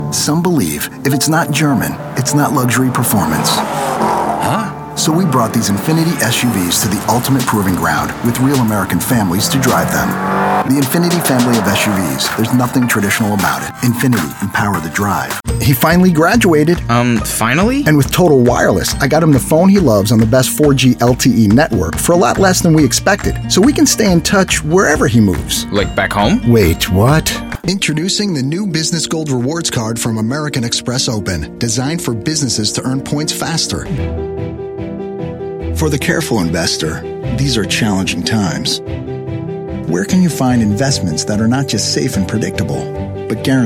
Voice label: commercial male; commercial men